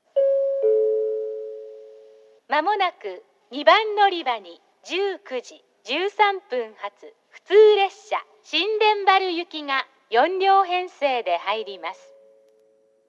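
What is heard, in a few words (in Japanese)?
2番のりば予告放送, 女声; 行橋; 普通 新田原行き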